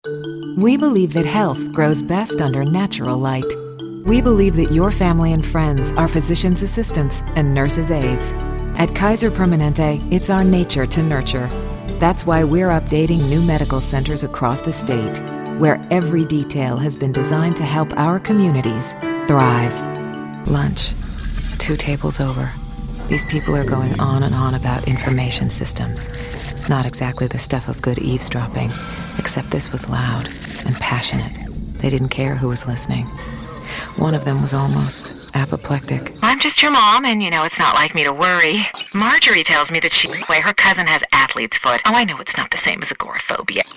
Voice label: commercial female; commercial women

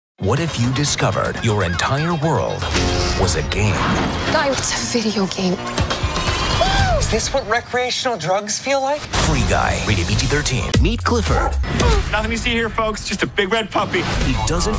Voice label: trailers men

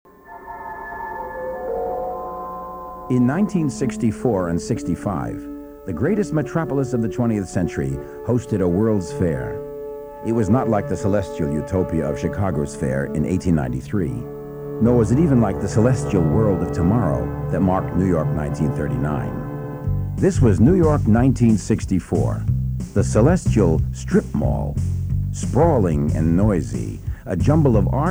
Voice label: celebrity narration

